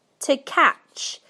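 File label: "to catch", British English; PictureDictionary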